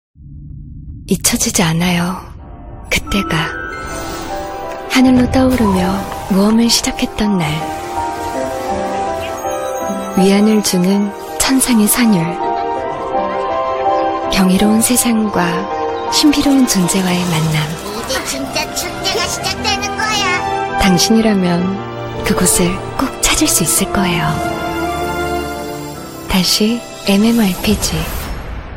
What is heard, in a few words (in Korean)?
게임 서정적인 감성있는 30대; 로스트아크